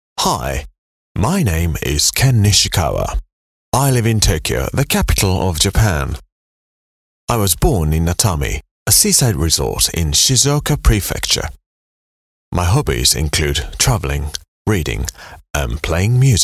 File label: en